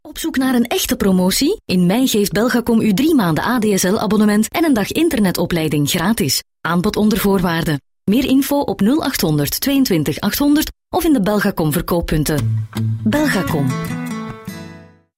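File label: Dutch Flemish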